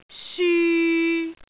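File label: "syu3", Cantonese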